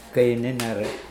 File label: "keininere", Mokilese